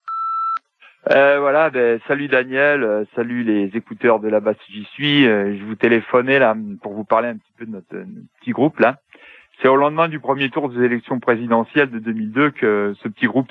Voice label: Irak repondeur

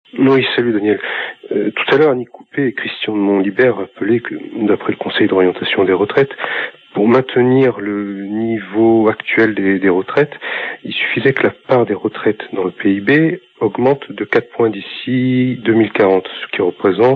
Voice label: Cotisations patronales repondeur

